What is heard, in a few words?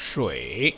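"shui", Chinese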